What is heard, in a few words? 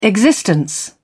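"existence", British English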